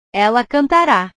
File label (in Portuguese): "ela cantara", portugues; portugues/verbos